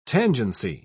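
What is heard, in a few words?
"tangency", British English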